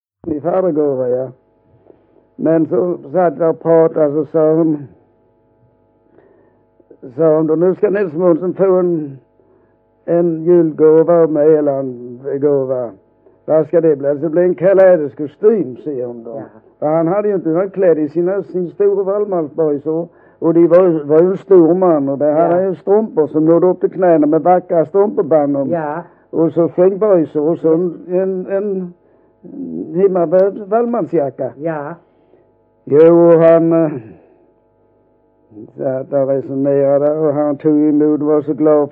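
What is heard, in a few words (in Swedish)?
Vollsjö Skåne, dialekter; Folkminnen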